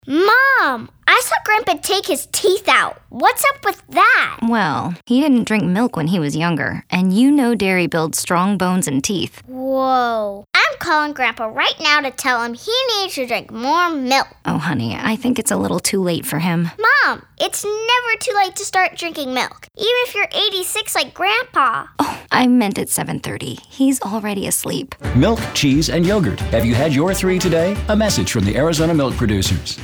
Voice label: Commercial, Female voices

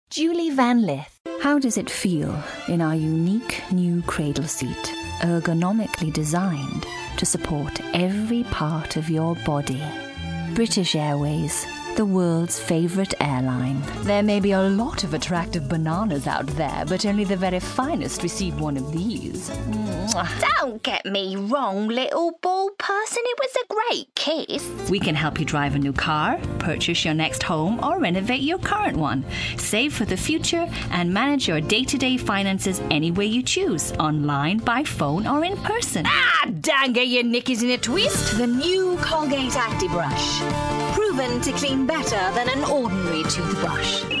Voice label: Commercial, Female voices

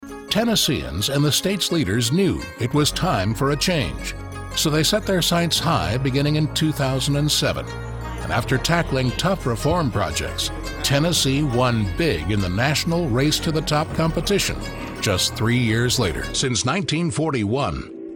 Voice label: Narration, Male voices

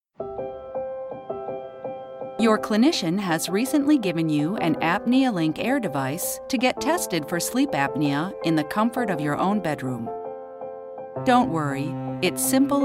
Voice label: Narration, Female voices

